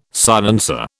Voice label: "silencer", British